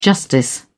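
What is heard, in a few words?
"justice", British English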